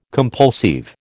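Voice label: "compulsive", American English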